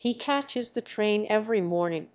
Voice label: female en